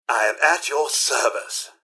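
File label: hello, robot; rivetcity; mrhandy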